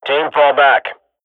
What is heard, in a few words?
radio bot fall back, swat; Counter Strike Model Pack; CS:GO